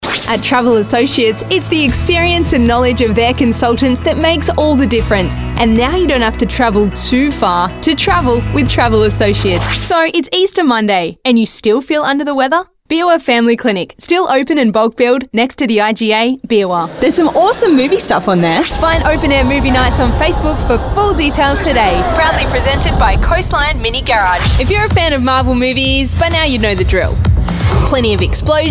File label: female talent retail